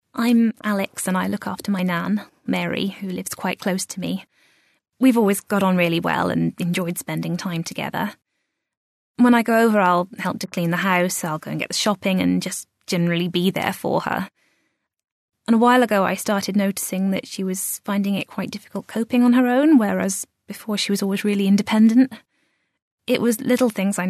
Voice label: English F Healthcare; elearning voice over